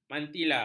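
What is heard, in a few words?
"mantila", Malay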